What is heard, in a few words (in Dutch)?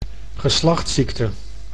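"geslachtsziekte", Dutch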